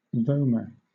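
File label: "vomer", British English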